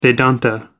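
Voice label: "vedanta", British English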